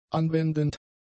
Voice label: "anwendend", German; adjectives